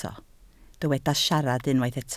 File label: "siarad", Welsh